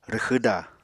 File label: "rwxwda", Apatani